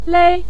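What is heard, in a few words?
"lei", Mandarin Chinese, neutral tone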